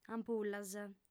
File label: "ampullas", audiofem